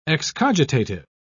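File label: "excogitative", British English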